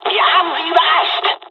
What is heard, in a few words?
japanese; first wave; War Thunder